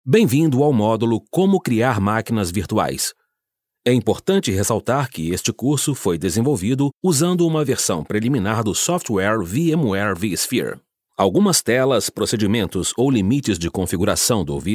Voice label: br portuguese elearning

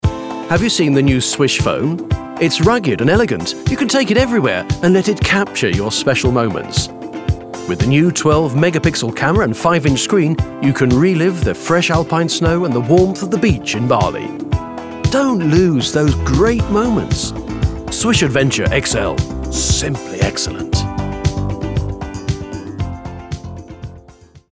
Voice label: uk upbeat

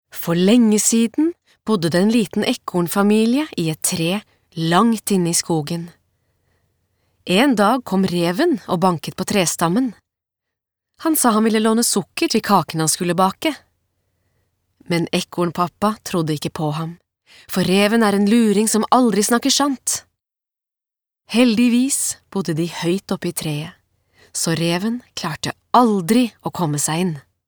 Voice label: no childrens book

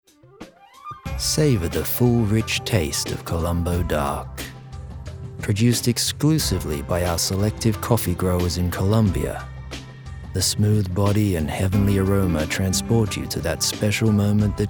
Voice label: uk soft sell, english uk

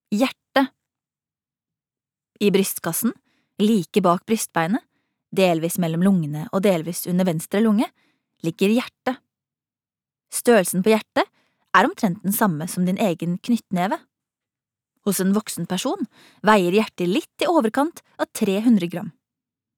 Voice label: no fagbok, norsk, kvinne

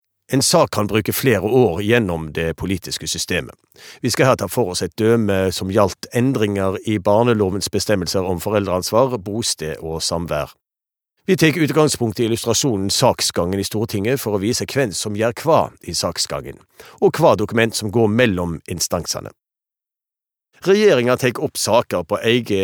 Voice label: no nynorsk bergen

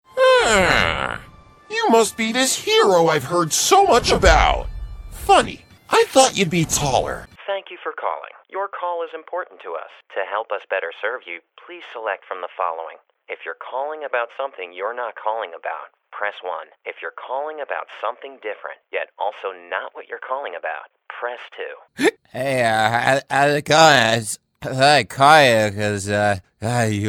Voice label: US characters

